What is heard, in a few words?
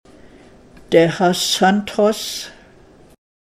Hes Crying